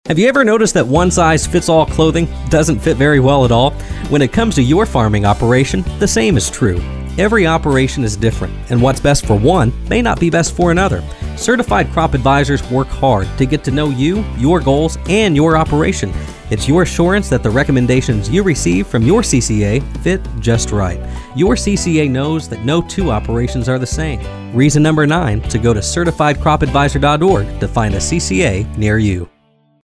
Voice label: radio spot; certified crop adviser; reason 9